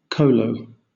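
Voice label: "kolo", British English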